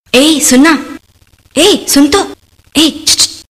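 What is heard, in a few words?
Sms Hindi Girl Voice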